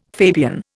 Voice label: "Fabian", Indian

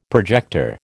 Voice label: "projector", American

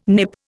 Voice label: "Nip", Indian

